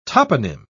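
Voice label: "toponym", British English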